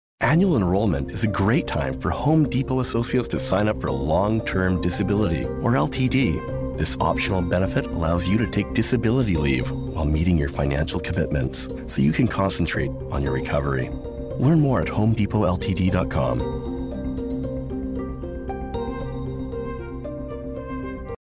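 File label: Commercial Voice Over, Confident · Informative; Commercial Demo Clips; Home Depot — Enrolment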